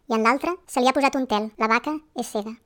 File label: speech female chipmunk